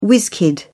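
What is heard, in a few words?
"whizz-kid", British English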